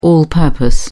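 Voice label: "all purpose", English, British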